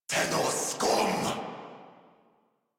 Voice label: Player Spotted Corrupted Grineer Male